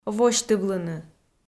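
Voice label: "восьтывлыны", Komi, female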